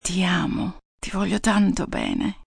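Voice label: I Love You Italian F, female, Italian; Endearment, foreign; Talking Sound Effects; Sound Effects:Voice; VoiceBox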